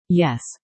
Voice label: "yes", robot

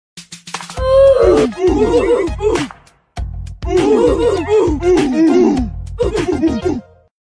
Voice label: oof death noise remake; default dance; roblox

